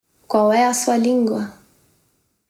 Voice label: portogiesisch brasilien

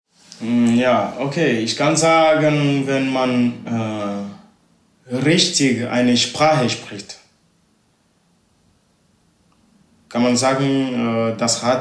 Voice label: ewe togo

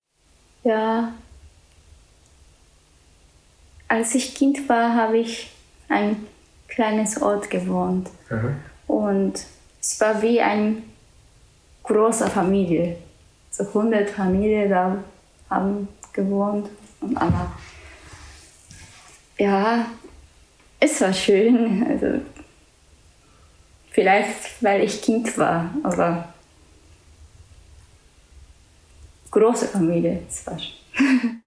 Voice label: japanisch

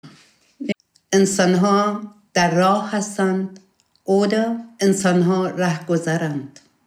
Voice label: persisch

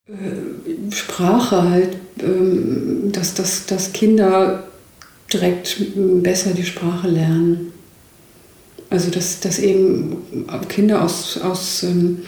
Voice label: deutsch

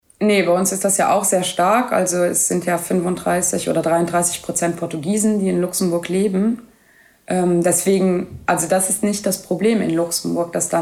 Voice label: luxemburgisch

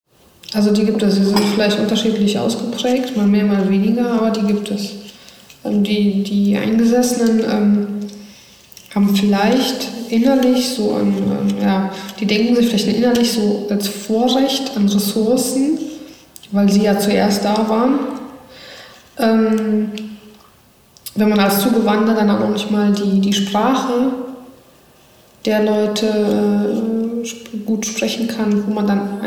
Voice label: polnisch

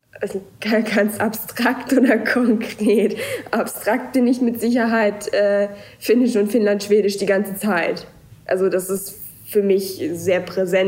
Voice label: finnisch schwedisch finnland

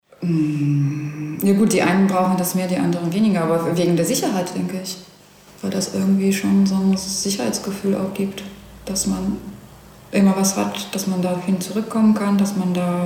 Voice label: polnisch

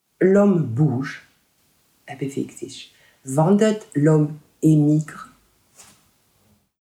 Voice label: franzoesisch

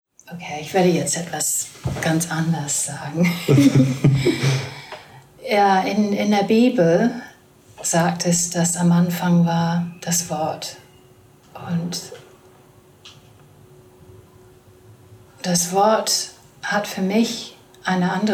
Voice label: englisch deutsch

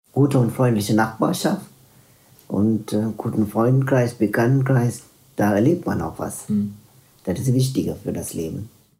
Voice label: tamilisch sri lanka

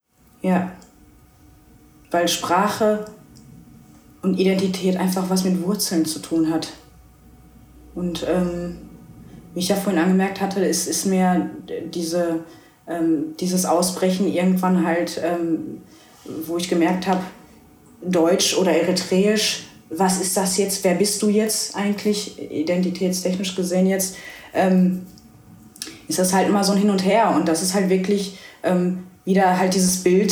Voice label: tigrinya eritrea

